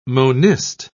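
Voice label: "monist", English, British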